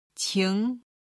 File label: "qing2", Chinese